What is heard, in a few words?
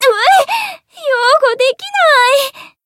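Voice encount fear, Japanese; millecha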